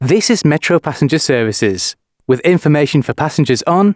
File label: announcements, male